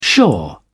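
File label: "shore", British English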